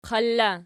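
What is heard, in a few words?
"qalla" nominative, Archi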